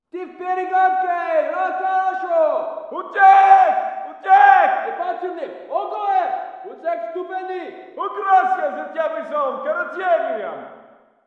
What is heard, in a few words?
walla rus mountain battle